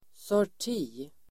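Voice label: "sorti", Swedish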